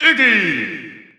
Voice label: English Announcer; SSB4-SSBU